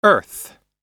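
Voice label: "earth", English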